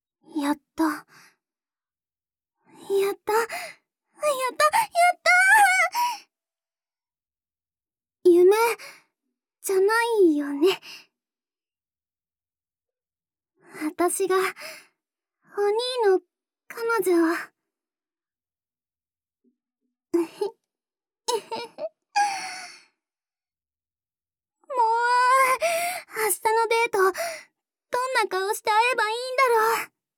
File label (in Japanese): 自室で喜ぶヒロイン; 後輩彼女〜図書室で過ごす2人の不器用な恋愛〜